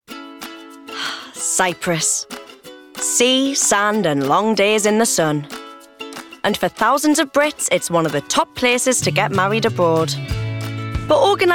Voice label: Documentary Excerpt